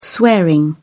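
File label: "swearing", British English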